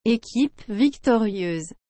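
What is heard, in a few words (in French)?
"equipe victorieuse", French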